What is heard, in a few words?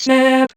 Vocoder Words; Vengeance Samples